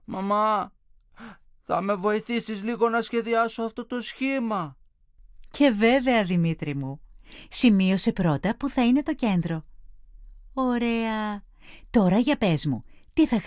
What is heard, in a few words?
dialog